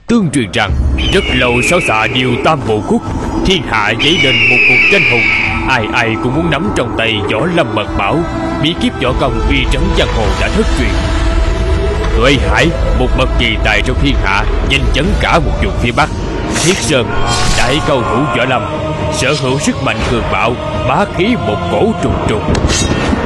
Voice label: Vietnamese South Male; Clip Qc Game